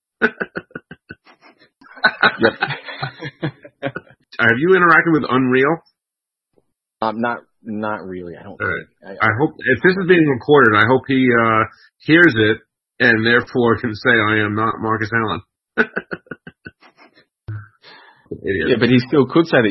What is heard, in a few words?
voice morph segment